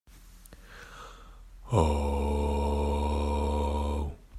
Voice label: low Oh